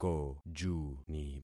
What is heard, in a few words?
"kojuni", concat syllables; Affixing experiment